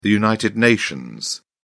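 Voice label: "united nations", British English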